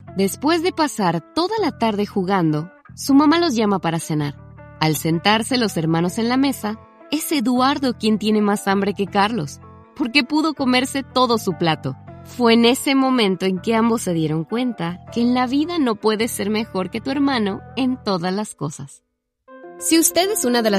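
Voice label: Narration, Spanish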